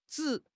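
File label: "zhi3", Mandarin Chinese; HSK3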